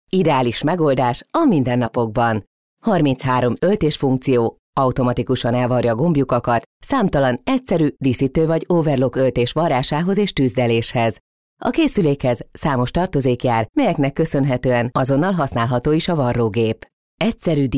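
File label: Hungarian, female, e-learning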